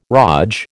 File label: "raj", American